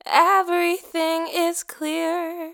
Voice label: Everything is clear, Vocals, Dry; GhostHack

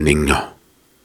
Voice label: Soft Syllables and Imaginary Words, Viking Vocal

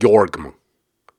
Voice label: Syllables and Imaginary Words, Viking Vocal; Viking Vocal Builder; Hard

